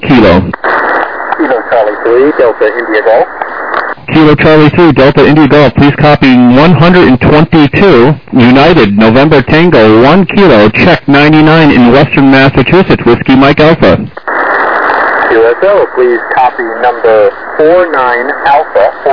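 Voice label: lower sideband 7MHz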